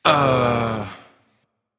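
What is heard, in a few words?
"seriously", male; smite; olorun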